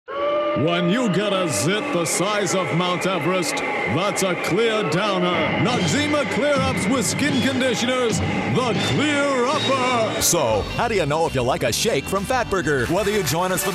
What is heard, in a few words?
Commercial Male, Big Announcer, Dramatic, Energetic; Commercial Voiceover, TV and Radio, Auto Dealer